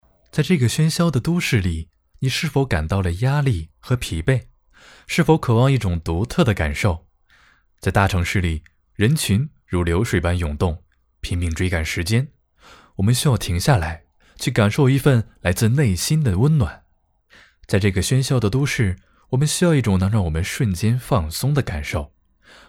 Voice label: 温暖文案

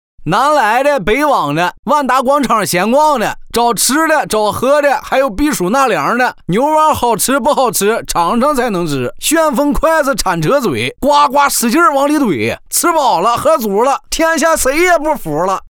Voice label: 东北口音搞笑

